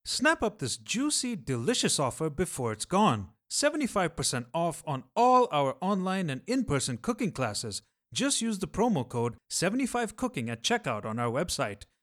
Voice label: advertisement english; ENGLISH Neutral